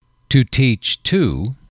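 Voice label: to teach to, English, male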